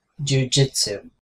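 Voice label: "jujitsu", American English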